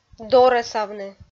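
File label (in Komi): "дорӧсавны", Komi, female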